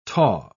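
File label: "taw", British English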